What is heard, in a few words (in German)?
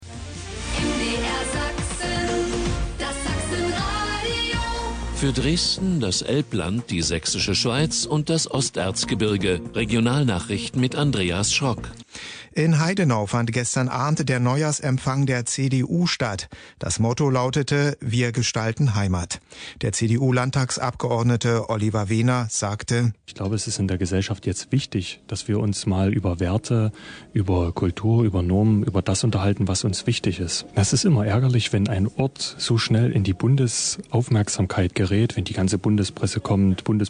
mdr1 nachrichten